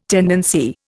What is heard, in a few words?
"tendency", Indian